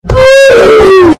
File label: death sound distorted; roblox